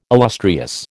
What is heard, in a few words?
"illustrious", American